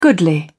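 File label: "goodly", British English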